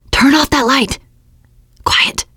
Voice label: kill that light, teen girl; player; survivor; voice